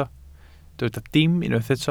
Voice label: "dim", Welsh